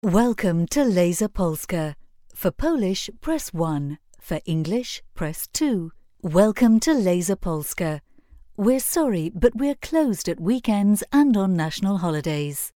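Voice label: English British, female, IVR; voice demos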